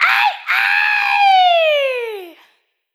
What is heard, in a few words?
"Cabaret", Vocal Samples, Words